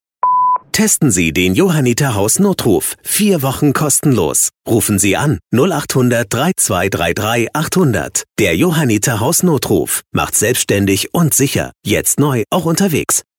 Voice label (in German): DEUTSCH MALE Werbung Off Hausnotruf; Johanniter